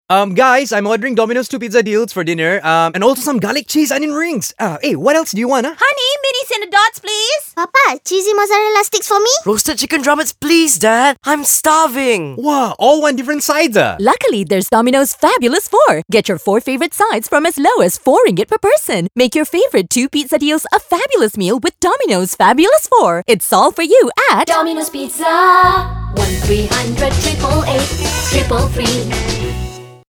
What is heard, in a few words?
ENG; Dominos; Fabulous Four